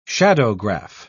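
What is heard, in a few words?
"shadowgraph", British English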